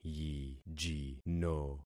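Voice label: "yijino", concatenated syllables; Affixing experiment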